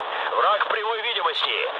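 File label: Russian enemy detected mood med, speech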